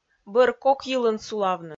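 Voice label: "бӧр кок йылын сулавны", Komi-Zyrian, female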